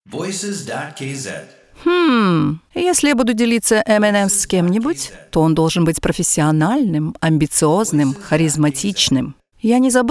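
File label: Russian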